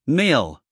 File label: "mill", US male